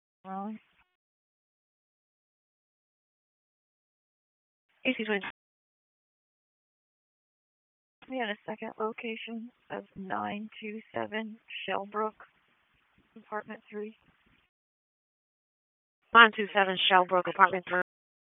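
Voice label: Police Northeast District Dispatch, p25; Raleigh Police Northeast District Disp; wake p25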